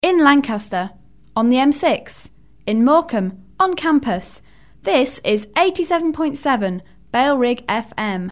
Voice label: female, no bed; in lancs m6 87.7 bfm; bfm jingles ads RSL 1994